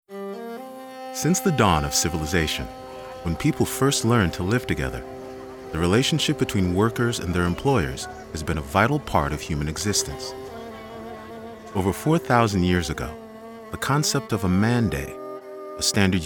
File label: Narration